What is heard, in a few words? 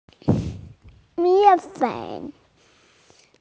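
"flour", female; mian4fen3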